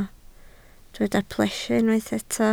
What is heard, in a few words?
"plesio", Welsh